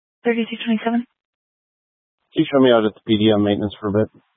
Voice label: Police Department Dispatch; Grand Junction

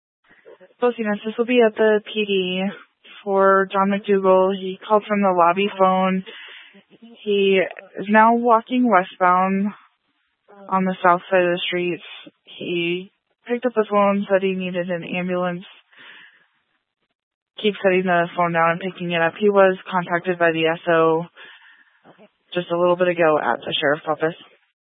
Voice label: Police Department Dispatch, scanner

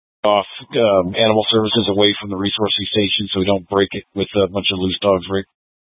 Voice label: Incident Tac 3, scanner

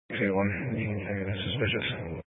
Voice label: Sheriff Dispatch; Mesa County

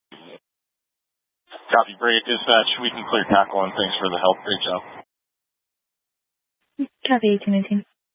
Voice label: Tactical Incidents, scanner

